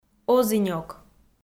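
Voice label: "озінёк" Komi-Zyrian, female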